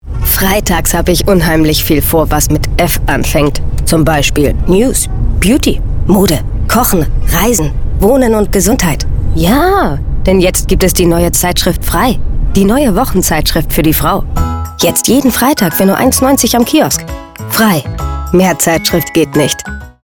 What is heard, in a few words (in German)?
Funk Spot frei